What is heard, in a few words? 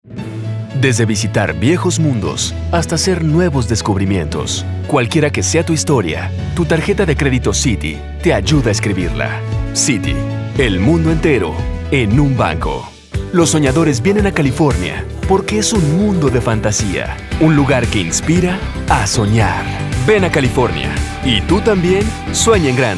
spanish commercial